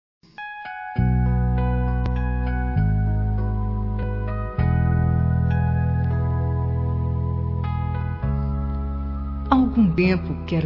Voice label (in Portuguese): Romântica Com Conquista